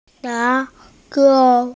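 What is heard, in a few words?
"nai3niu2", Mandarin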